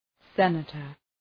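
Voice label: "senator", English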